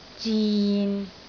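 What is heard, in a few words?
"zin6", Cantonese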